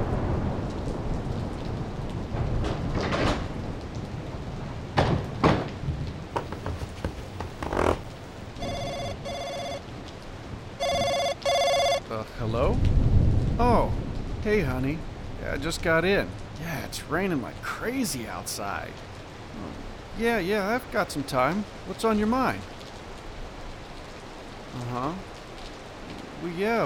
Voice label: Dramatic Reel

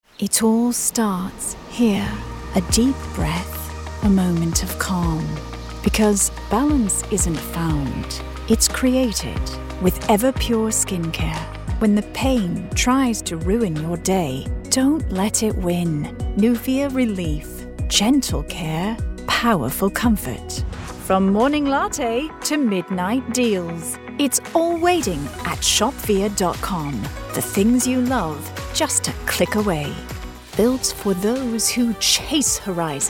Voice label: UK

